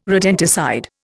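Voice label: "rodenticide", Indian English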